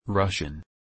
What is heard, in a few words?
Russian